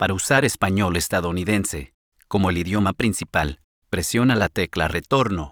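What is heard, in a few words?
Voice Over Instructions Spanish US; Language Chooser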